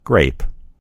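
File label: "grape", American English; gcompris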